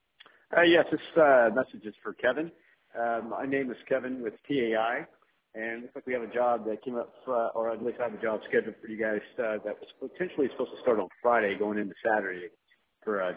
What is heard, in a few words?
voicemail; DuPont X-Station